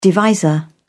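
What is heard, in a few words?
"divisor", British English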